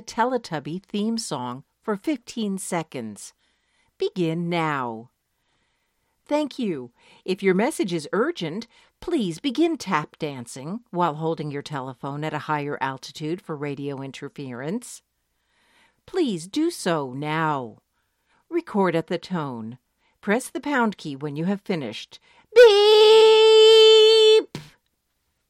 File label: Voicemail, Novelty Answering Machine Greetings